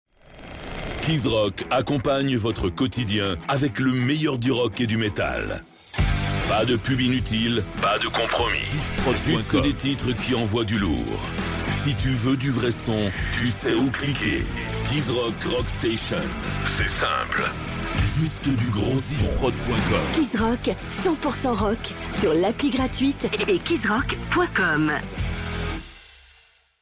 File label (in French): Promo Duo; Kizrock